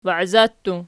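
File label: "waiza" plural, Archi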